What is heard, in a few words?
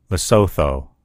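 "lesotho", English; gcompris; geography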